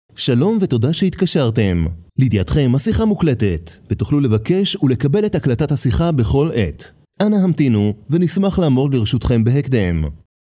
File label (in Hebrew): notice opener male; voiceovers